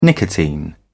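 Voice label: "nicotine", British English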